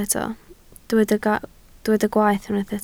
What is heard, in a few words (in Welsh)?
"gwaeth" Welsh